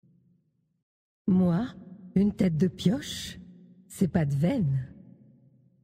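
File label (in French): French Femme Blague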